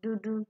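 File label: "dudu", Nigerian Pidgin, female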